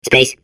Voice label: babble; portal2